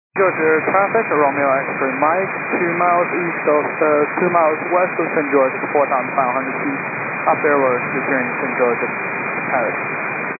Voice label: 122.925 MHz; scanner radio